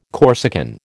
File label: "Corsican", American